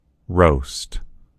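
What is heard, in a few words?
"roast", American English; gcompris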